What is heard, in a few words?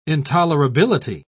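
"intolerability", British English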